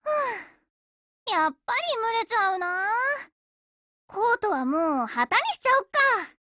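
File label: WIN Japanese, Voice; GF Alarm; C96Mod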